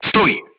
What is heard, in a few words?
voice message short stop, crew tanks polish